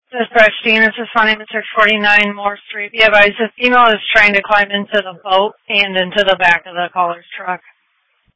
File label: Sheriff Dispatch